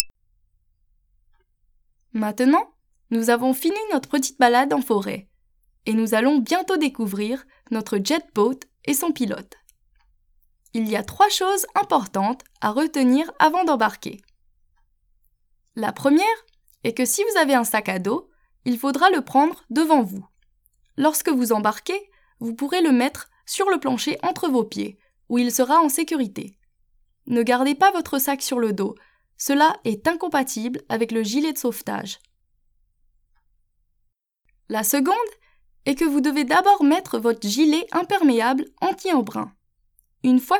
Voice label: french; dartriver

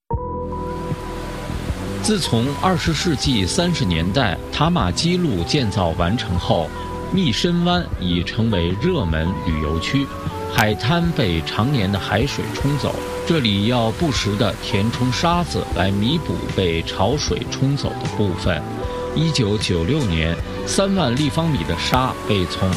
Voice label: mission bay mandarin

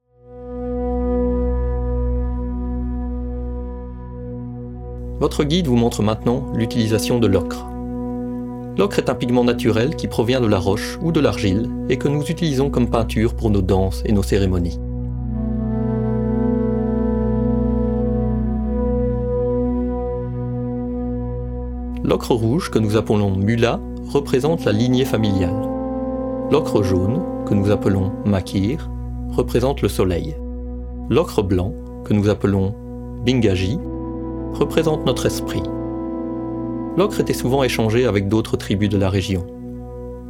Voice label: French; Mossman Gorge

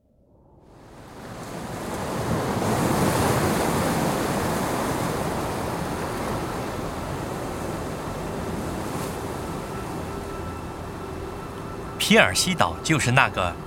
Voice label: Mandarin

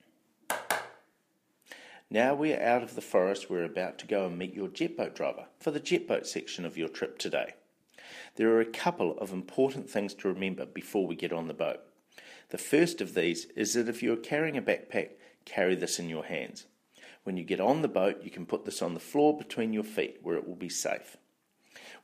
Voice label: english; dartriver

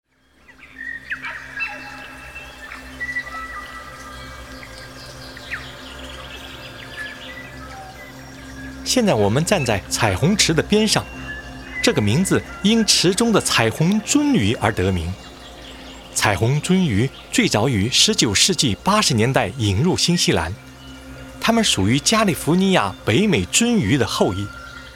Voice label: mandarin

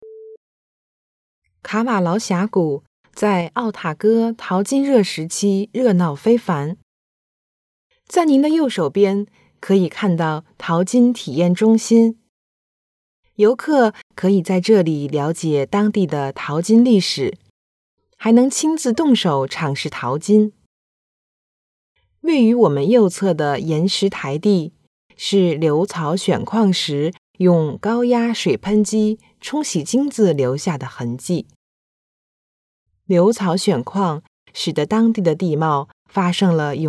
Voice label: mandarin

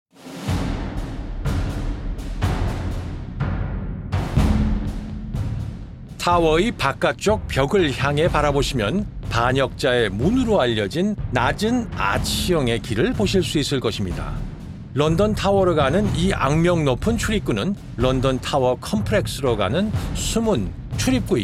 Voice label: Crown Jewels Korean

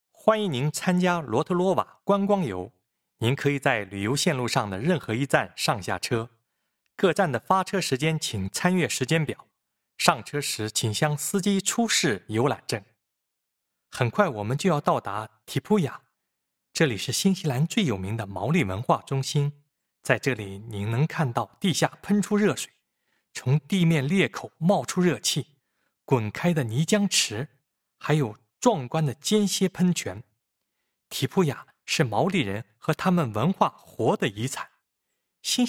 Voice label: Mandarin; Te Puia

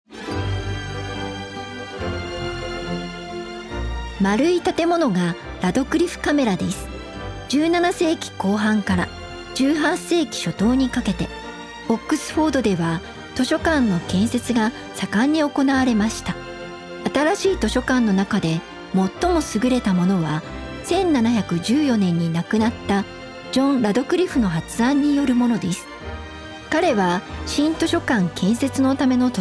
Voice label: Japanese; Stonehenge Inner Circle Access

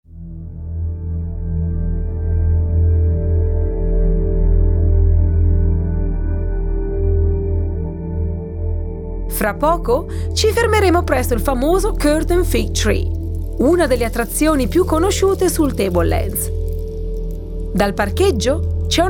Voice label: figtree italian

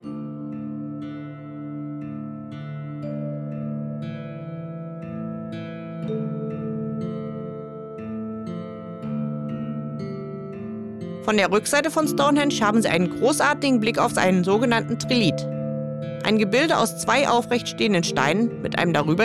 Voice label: German; Stonehenge

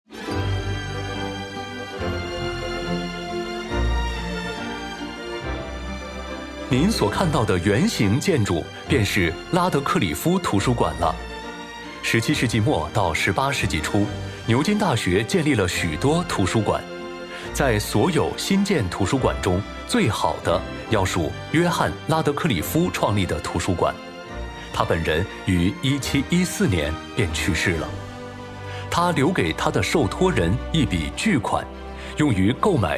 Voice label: Mandarin; Stonehenge Inner Circle Access

